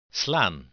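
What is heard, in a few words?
"slaan", Dutch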